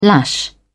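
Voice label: "lash out", British English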